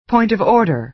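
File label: "point of order", American English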